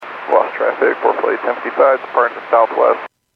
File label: Airports 122.7, Radio; KSTE